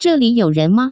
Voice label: zheli you ren, Taiwan Chinese

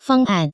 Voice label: "fangan", Taiwan Chinese

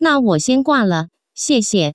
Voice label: gua dianhua, Taiwan Chinese; phone call

